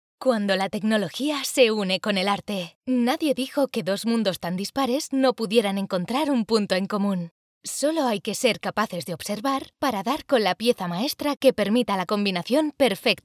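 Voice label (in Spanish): generico España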